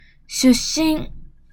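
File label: "shussin", Japanese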